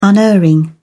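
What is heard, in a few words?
"unerring", British English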